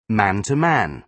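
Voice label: "man-to-man", British English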